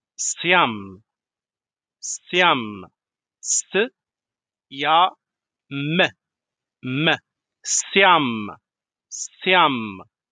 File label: fasting in moroccan arabic vocabulary darija